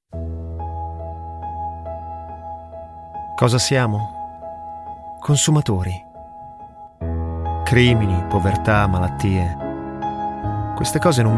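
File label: male, Italian, narration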